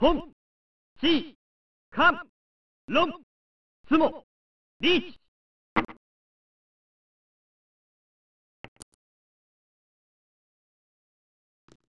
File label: "Hun-si-kont-loonght-tsumo-neets!" (Male), mahjong; pro mahjong kiwame; snes